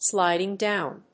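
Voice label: "sliding down", English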